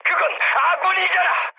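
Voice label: Korean player friendly fire mood med; War Thunder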